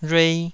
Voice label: "rhuei2", taiwanese